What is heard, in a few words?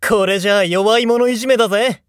nekketsu before